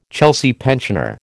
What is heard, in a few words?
"Chelsea pensioner", American